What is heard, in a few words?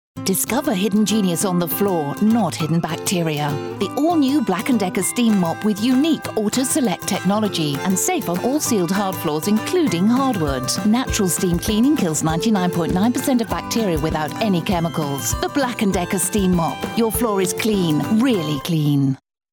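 English British, female, commercial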